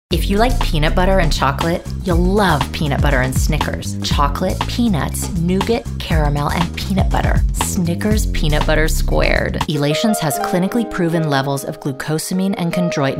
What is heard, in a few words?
Commercial